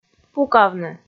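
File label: "пукавны", Komi, female; kpv audio dict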